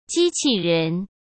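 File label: "机器人", Mandarin Chinese; hsk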